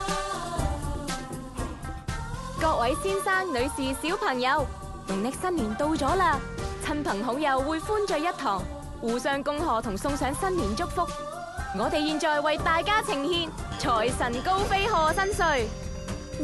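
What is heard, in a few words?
Chinese, General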